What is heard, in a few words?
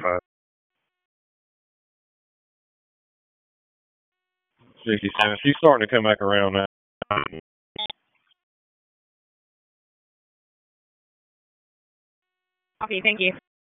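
Public Safety Tactical, p25; wake p25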